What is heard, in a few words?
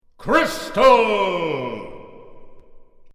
Announcement; Krystal